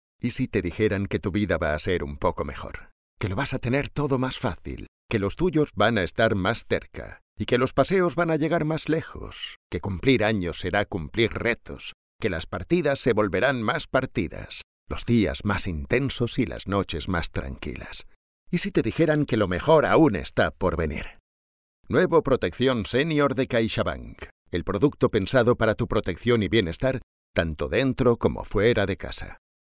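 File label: Spanish Spain, male, voice over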